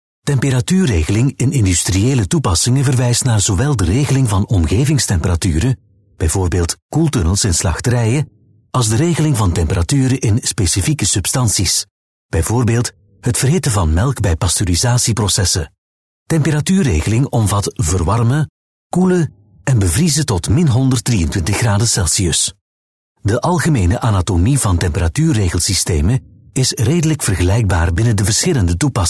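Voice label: Flemish, male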